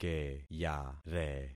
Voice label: "keyare", concat syllables; Affixing experiment